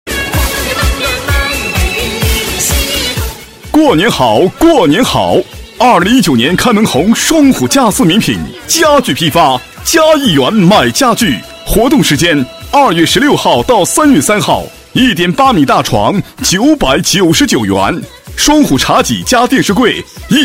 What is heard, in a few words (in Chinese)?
促销广告; 双虎家私